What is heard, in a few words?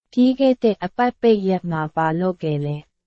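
Burmese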